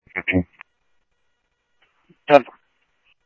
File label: Mesa Simulcast, MESA PD, scanner audio